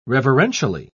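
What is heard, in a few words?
"reverentially", British English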